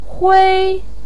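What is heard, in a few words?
"hui", Mandarin pinyin, first tone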